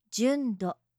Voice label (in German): "jundo", japanische maedchennamen